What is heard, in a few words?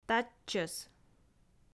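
"татчӧс", Komi, female; audio dict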